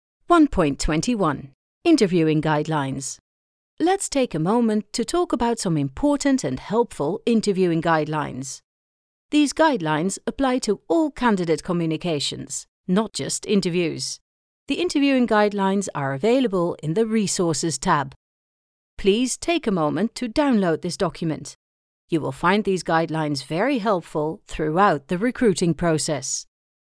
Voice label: english e-learning